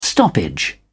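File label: "stoppage", English, British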